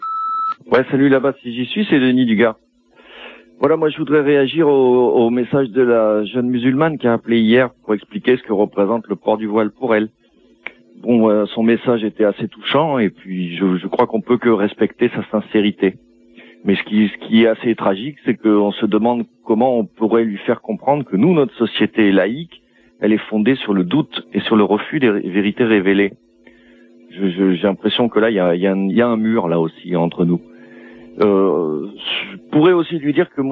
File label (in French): répondeur